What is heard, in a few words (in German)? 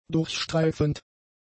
"durchstreifend", German; adjectives